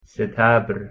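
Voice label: "setabr", Persian, male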